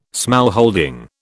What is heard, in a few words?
"smallholding", British